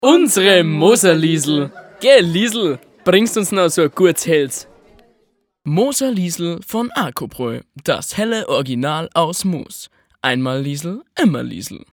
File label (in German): Werbung Reminder; Arcobraeu